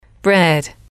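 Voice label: "bread", English, female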